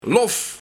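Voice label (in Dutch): "Lof", Luik, Kerkdienst zondag namiddag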